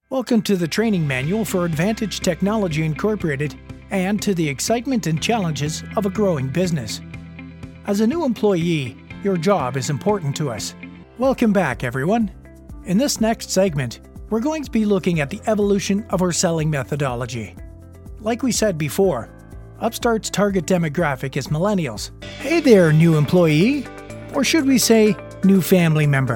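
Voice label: corporate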